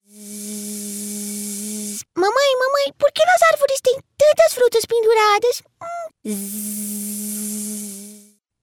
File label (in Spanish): locutora brasilena